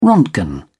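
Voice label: "roentgen", British English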